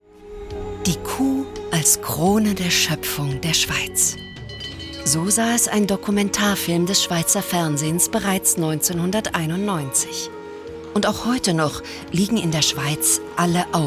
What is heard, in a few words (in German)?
Kommentar, Frauen; Die Schweiz und die Kuh